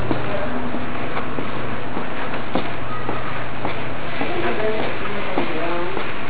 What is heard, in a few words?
main flr hallway evp; summit ridge